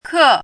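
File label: "kè", Chinese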